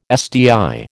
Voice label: "SDI", American